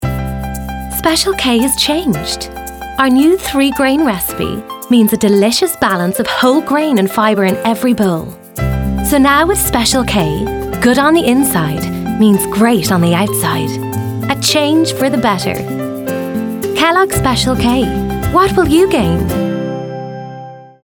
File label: female English commercial; Kellogg's